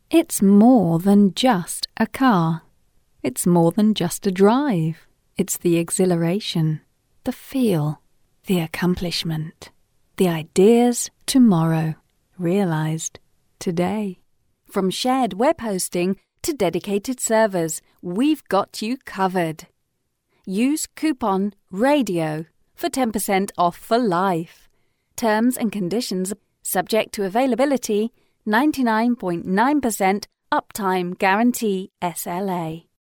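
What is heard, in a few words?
British English, female, voice over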